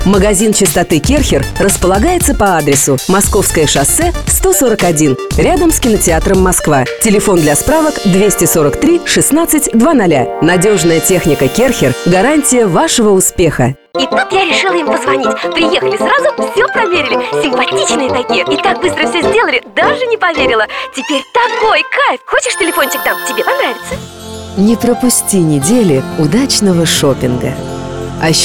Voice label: FEMALE